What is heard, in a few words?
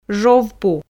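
"жов пу", Komi, female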